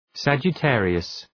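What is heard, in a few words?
"Sagittarius", English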